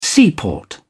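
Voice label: "seaport", British English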